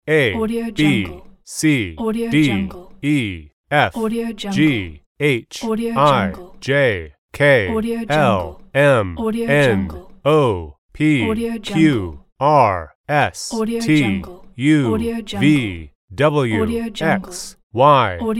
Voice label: letters of the alphabet male